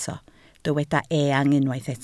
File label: "eang", Welsh